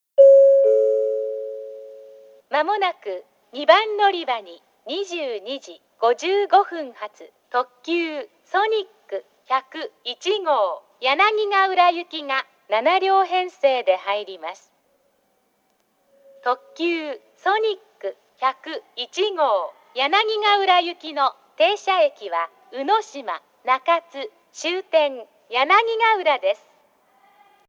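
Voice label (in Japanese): 2番のりば予告放送, 女声; 行橋; ソニック101号 柳ヶ浦行き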